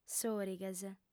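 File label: "sòrighes", Sardinian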